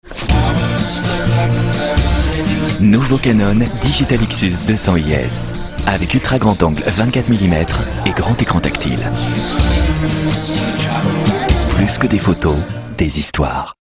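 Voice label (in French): naturel intime, comédien voix off homme; Canon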